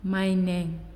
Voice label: "Liver", Naasioi

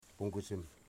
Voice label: "stars", Wamut

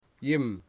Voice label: "water", Wamut

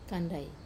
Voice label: "kandai", thorn, Wamesa

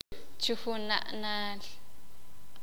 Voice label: sakapulteko; cada vez

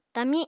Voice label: "tami", Apatani

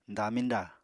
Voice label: "damiñda", Apatani

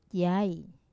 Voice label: "yai", father, Wamesa

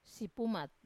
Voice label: "sipumat" they are dull, Wamesa

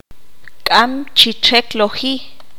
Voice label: "invisible", Q'anjob'al

